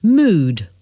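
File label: "mood", English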